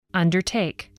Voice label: "undertake", English spelling voice

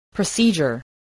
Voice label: "procedure", voice, spelling

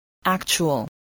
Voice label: "actual", English voice

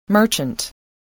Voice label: "merchant", voice, spelling

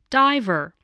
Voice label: "diver", spelling

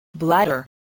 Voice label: "bladder", spelling

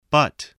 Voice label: "butt", spelling

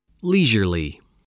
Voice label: "leisurely", spelling

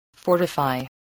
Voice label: "fortify", English voice, spelling

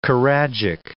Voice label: "choragic", English

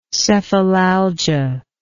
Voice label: "cephalalgia", English

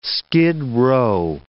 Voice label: "skid row", English

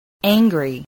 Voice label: "angry", English

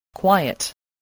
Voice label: "quiet", english

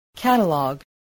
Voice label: "catalogue", spelling

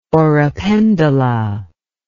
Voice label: "oropendola", English word

